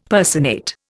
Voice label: "personate", Indian; Dictionary

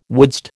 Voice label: "wouldst", American

